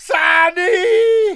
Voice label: fear; dialogue; mohaa